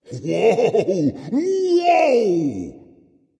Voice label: fear dragon; fafnir